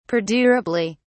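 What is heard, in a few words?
"perdurably", British English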